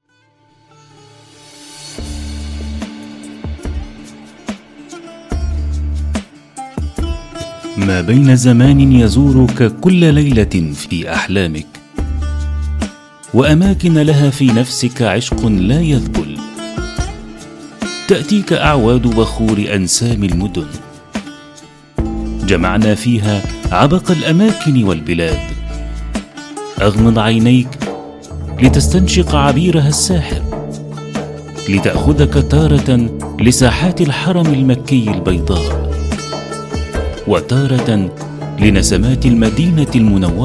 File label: commercials, Arabic